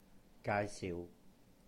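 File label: "gaai-siuh", Cantonese; introduce; recommend; 介紹; gaai3siu6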